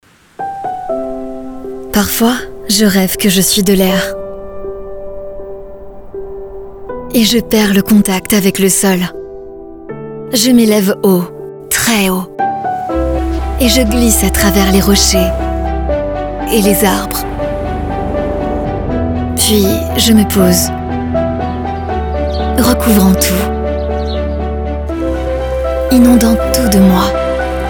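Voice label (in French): pub web, posée, sensuelle, aérienne, la fille de l'air de cour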